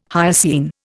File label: "hyoscine", Indian